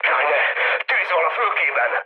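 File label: Hungarian on fire mood low, speech; War Thunder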